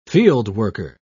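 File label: "fieldworker", English, British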